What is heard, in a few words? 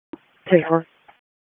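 Police Dispatch, p25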